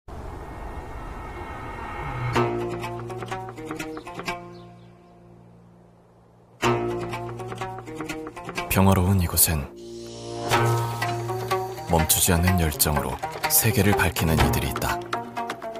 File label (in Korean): 기업홍보; 동화그룹